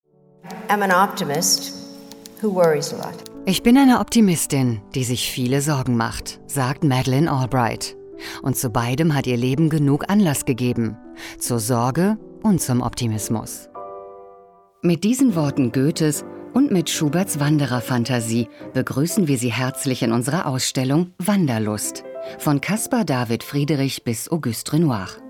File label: Audioguide